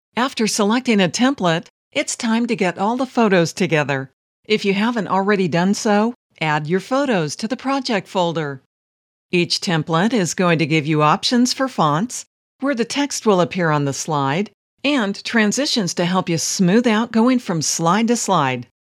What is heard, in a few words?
Explainer